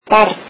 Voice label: "child", Icelandic

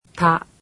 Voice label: "ta", japanese; alphabet

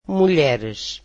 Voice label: portuguese women

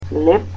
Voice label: "bread", Macedonian

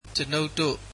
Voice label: "we", Burmese

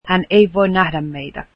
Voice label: finnish he cannot see us

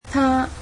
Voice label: "her", Cantonese

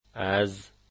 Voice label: from, dari

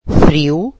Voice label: "cold", Portuguese

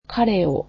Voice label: "him", japanese

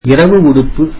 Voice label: tamil pajamas

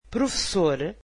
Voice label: portuguese teacher

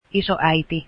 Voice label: finnish grandmother

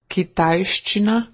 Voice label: "Chinese", Slovene

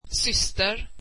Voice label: swedish sister

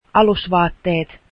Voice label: underwear, finnish

